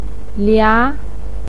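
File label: "lia", Mandarin Chinese, second tone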